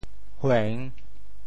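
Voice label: "hueng3", Teochew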